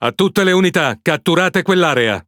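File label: Italian speech Quick Commands Enemy Point; World Of Warships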